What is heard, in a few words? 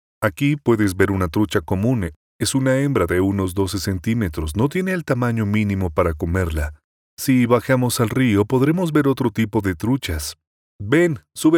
spanish colombia male other